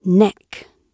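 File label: "neck", British English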